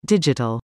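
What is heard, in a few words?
"Digital", English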